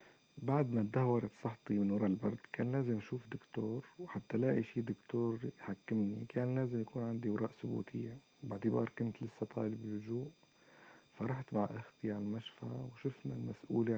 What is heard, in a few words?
North Levantine Arabic, Monologues; Alep; UFAL Speech Corpus of North Levantine Arabic 1.0